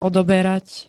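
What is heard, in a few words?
"odoberať", Slovak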